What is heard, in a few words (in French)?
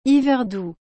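"hiver doux", French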